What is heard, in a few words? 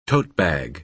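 "tote bag", American English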